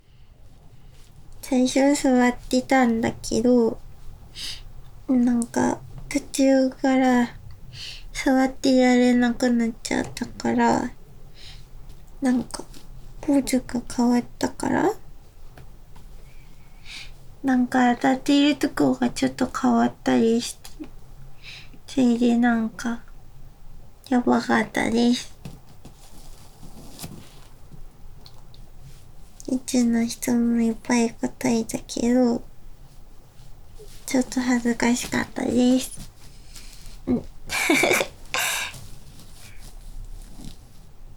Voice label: フリートーク, ASMR; 赤裸々告白!?本気オナニー聴いてください

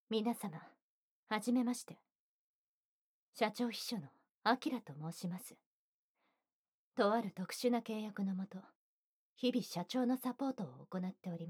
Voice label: 販促ナレーション; 秘書; 晶さん

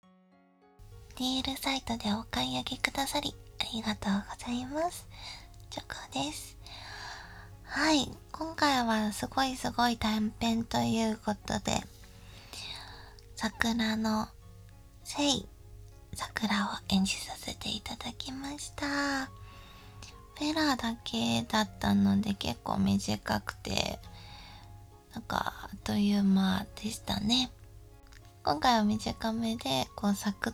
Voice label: フリートーク; サクッとフェラ音声; えっちでかわいい♪さくらの精

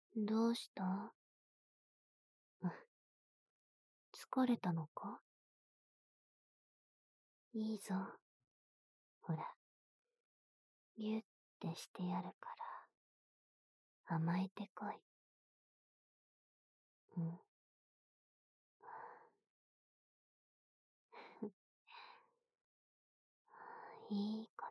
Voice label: 添い寝, ASMR; 愛の抱擁。胎内回帰。; SEなし

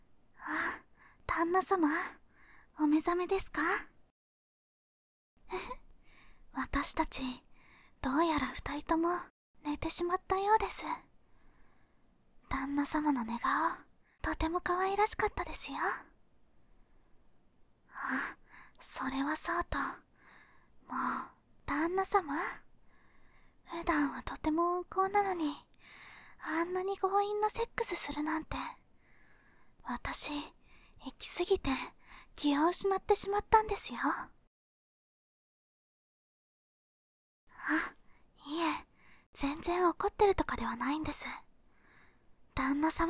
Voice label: 添い寝で向かい合いながらのピロートーク; No SE

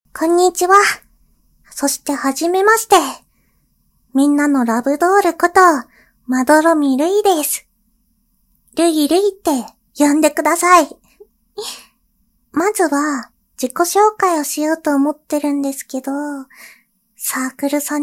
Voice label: 自己紹介しながらオナニー

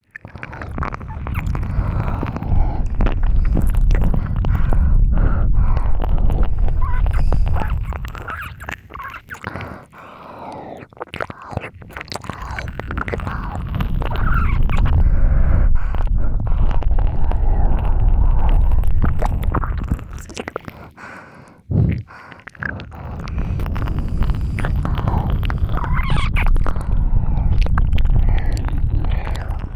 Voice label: ぐっぽりMIX両耳, ASMR

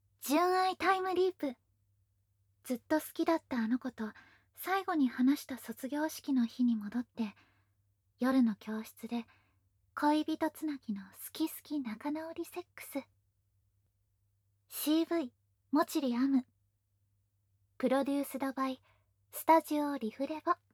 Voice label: タイトルコール, ASMR

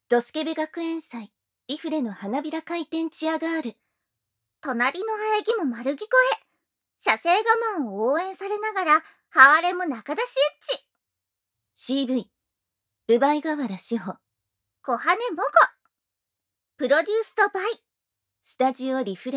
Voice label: タイトルコール, ASMR

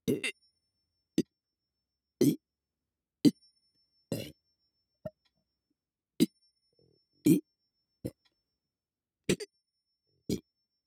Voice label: 右耳 3拍子 「え」 メトロノーム; 月賦村レポート作成資料〜連続ゲップ真穂の練習〜

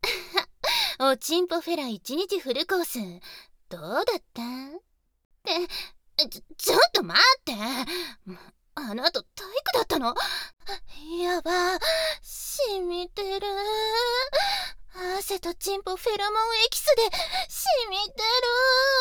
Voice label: 体育後のスケベエキスを食べちゃうッボーナスタイムぅぅう 右 語り掛け, ASMR; ギャルフェラッ!!学校は援交ギャル風俗店ッ!!

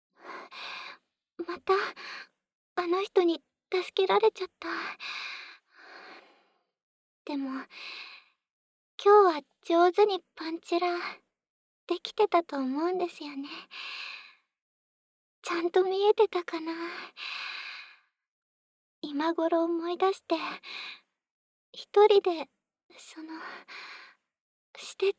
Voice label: カウントダウンボイス, 前日ボイス; プリンセス; お姫様

